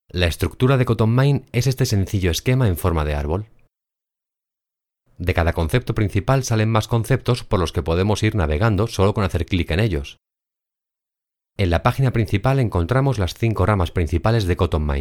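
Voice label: Spanish European M Tutorial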